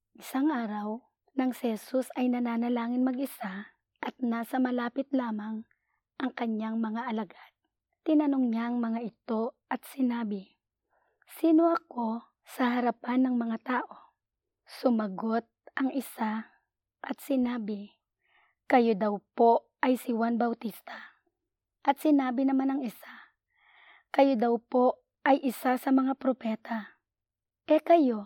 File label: Tagalog; Lodi; Who Do People Say I Am